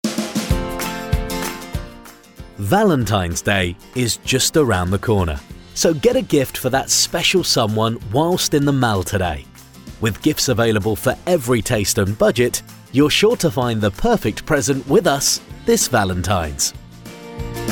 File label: val mixdown male